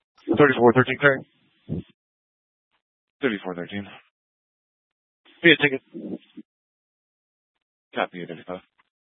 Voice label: Police Department Dispatch, scanner